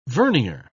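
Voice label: "vernier", British English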